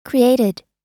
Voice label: "created", us

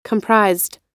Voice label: "comprised", us

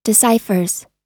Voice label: "deciphers", us

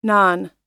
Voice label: "non", US

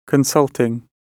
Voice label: consulting, gb

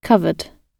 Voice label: "covered", gb

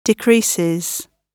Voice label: "decreases", gb

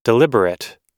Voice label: "deliberate", us

